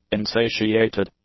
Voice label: "insatiated", English; adjectives

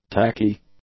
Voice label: "tacky", English; adjectives

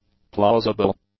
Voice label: "plausible", english; adjectives

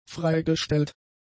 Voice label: "freigestellt", German; adjectives

